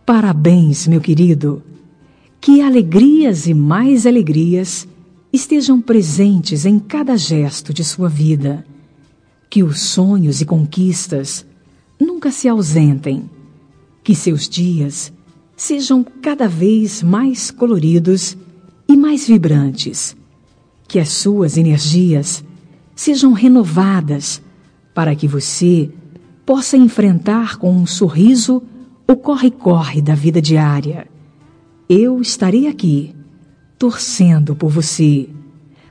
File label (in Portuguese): afilhado fem